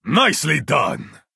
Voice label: Nord male nice play

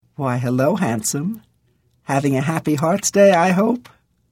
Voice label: Holiday Hello High Elf Female; Unused

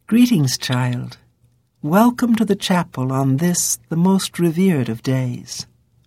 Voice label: Holiday Greeting High Elf Female; Unused

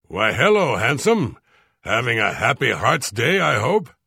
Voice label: Unused Holiday Hello Nord Male

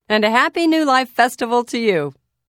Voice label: Greeting Nord Female; Unused Holiday New Life

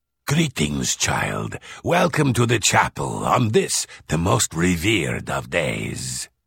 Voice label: Holiday Greeting Male; Unused Holiday; Argonian

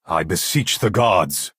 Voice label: male implore; Breton

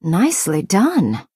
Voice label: Breton female nice play

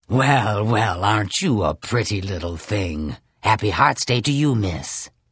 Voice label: Holiday Hello Argonian Female; Unused

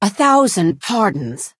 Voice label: female sorry; Redguard

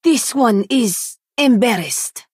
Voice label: Khajiit female "oops"

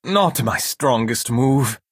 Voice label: Altmer male oops

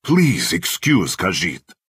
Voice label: Khajiit male sorry

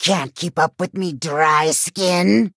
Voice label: argonian recruit female attack

